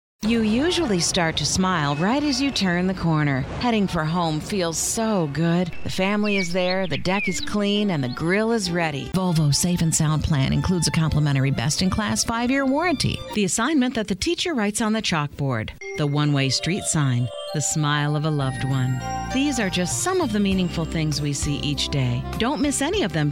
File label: Commercial Female